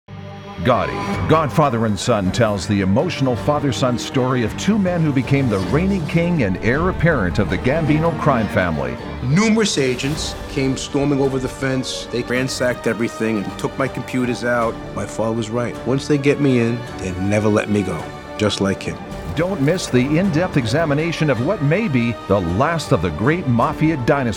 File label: english american promos; Profundo e autoritário com clareza elegante e calor